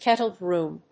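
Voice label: "kettledrum", English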